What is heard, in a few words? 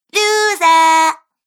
Cartoon Girl Loser; Cartoon Voice Sound Effects